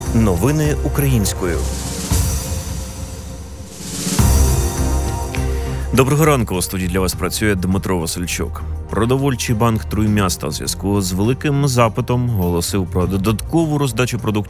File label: Ukrainian News